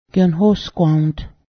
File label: cow, Cayuga